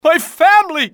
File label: my family, english male; XBOX; civ